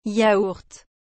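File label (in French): "yaourt", French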